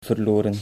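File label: "verloren", Dutch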